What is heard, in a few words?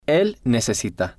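"el necesita", Spanish, male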